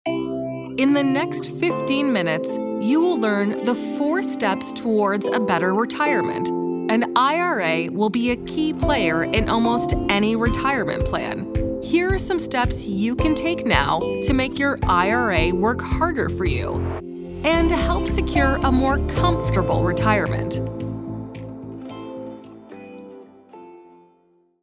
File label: english canadian e-learning